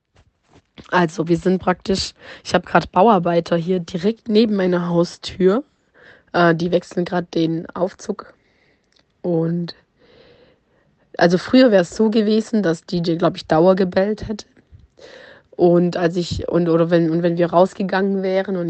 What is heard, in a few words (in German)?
Kundenstimmen